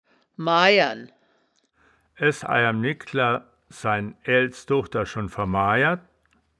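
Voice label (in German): "maijern", wittlicher woerterbuch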